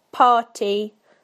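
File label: "party", British English; PictureDictionary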